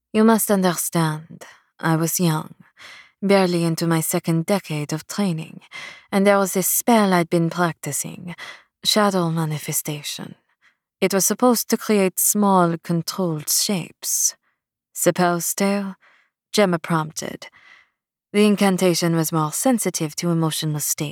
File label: French